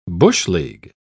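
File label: "bush-league", British English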